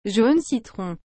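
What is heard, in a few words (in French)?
"jaune citron", French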